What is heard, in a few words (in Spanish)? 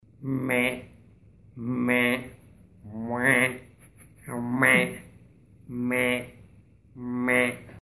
robot